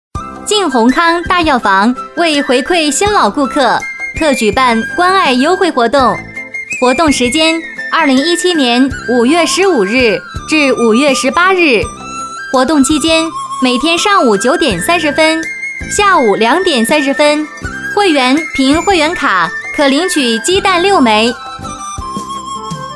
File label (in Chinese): 女 广告 大药房; 靖宏康